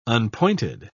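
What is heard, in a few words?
"unpointed", British English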